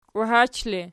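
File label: "kwahach", ergative, Archi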